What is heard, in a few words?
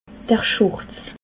"schurz", alsace